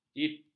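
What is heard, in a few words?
"jip", Malay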